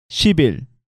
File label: "sibil", Korean